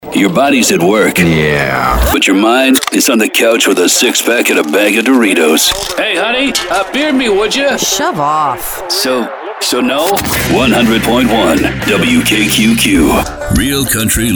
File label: Radio Imaging